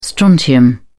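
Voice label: "strontium", British English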